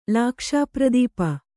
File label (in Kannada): "ಲಾಕ್ಷಾಪ್ರದೀಪ", Kannada